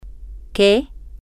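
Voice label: what, Spanish, female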